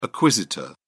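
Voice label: "acquisitor", British English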